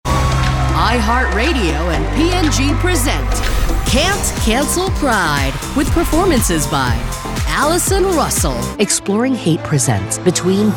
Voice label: Promo Demo